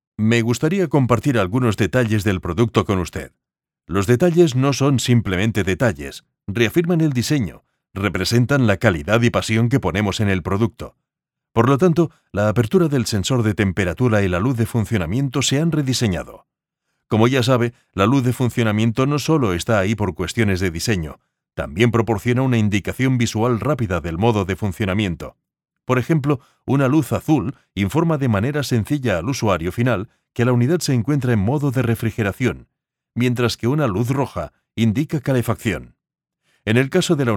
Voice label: e-learning technical

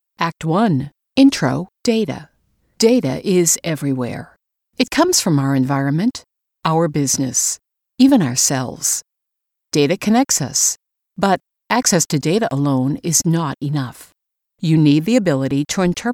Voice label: Corp Explainer Video; Analytics Reinvented

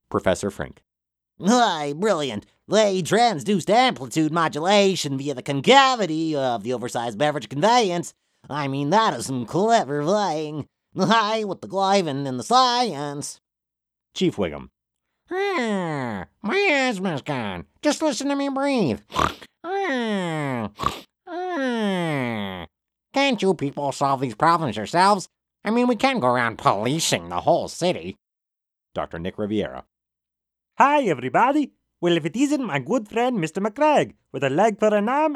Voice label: character voices; Simpsons

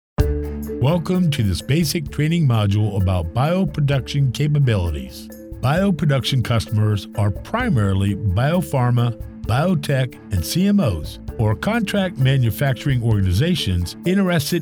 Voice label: e-Learning

